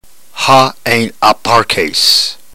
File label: drill, ntgreek